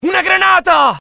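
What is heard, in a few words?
fear grenade, IT; mohaa; dialogue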